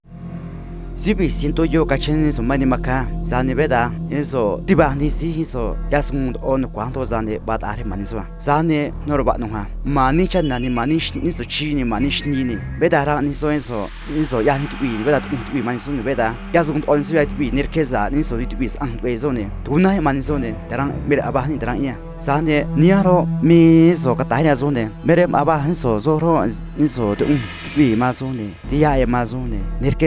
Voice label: trique de san juan copala; Trata Laboral

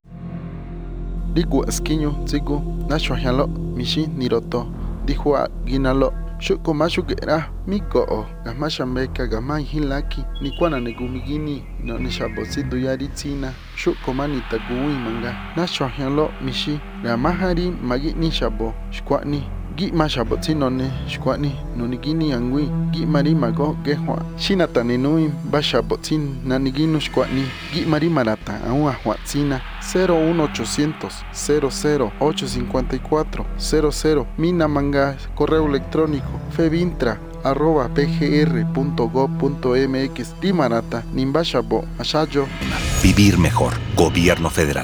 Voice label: tlapaneco central bajo; Trata Sexual

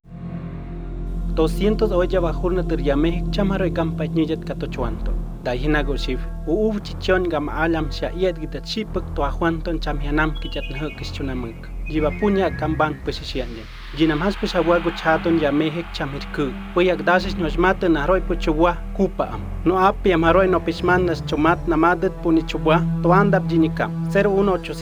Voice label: tepehuano del sur bajo; Trata Laboral

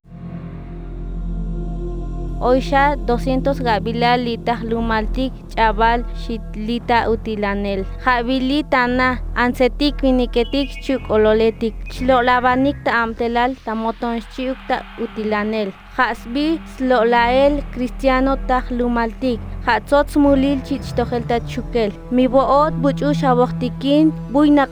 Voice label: tsotsil del centro; Trata Laboral

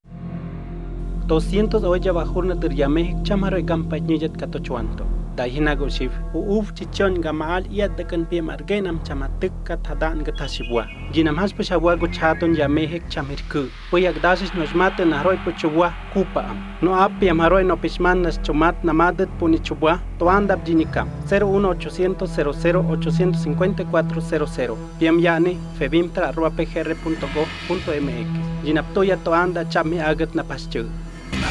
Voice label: tepehuano del sur bajo; Trata Sexual

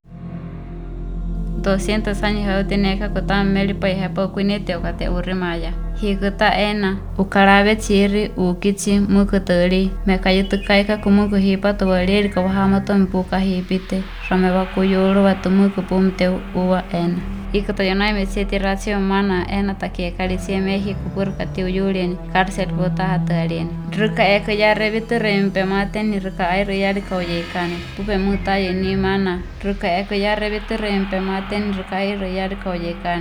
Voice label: huichol; Trata Sexual

